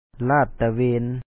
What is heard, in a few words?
ລາດຕະເວັນ la1at tawe2n patrol, Lao